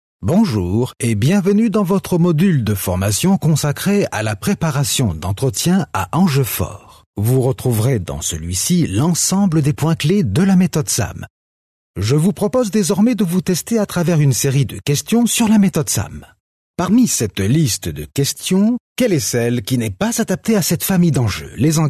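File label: French, male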